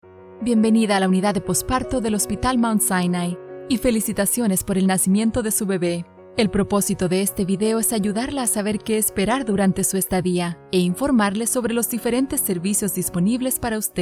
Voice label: Corporate Elearning